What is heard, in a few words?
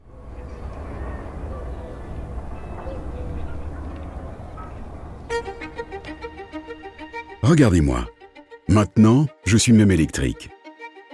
Narrative Compelling; MACIF